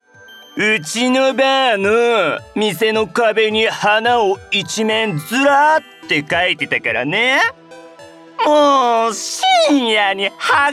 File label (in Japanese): バーのオカマ風